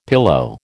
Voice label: "pillow", American